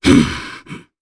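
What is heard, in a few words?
Vox Casting, Japanese; Nicx; kingsraid; voices heroes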